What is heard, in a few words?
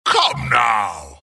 wraith death; dota; skeleton king